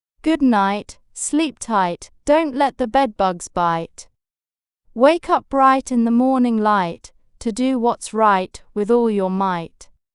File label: fem brit; Good Night Sleep Tight